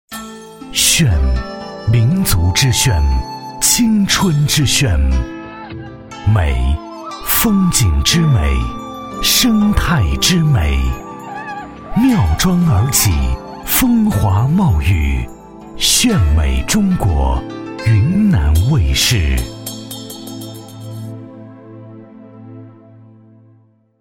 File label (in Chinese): 男 片头 浑厚 磁性 成熟, 国语男声配音; 炫美中国 云南卫视